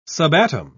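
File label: "subatom", British English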